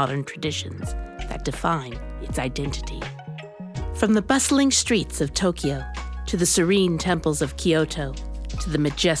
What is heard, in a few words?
VO Travel Japan Mix